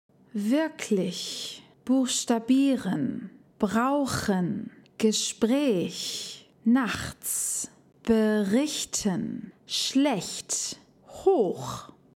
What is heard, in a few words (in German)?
DaF Aussprache Ach laut Übung